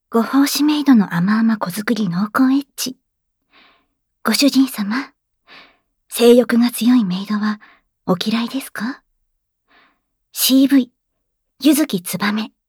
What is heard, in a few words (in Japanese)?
タイトルコール, ASMR